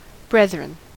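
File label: "brethren", US English; pronunciations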